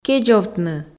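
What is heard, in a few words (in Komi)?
"кедзовтны", Komi, female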